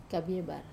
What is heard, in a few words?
"kabiebar", lightning, wamesa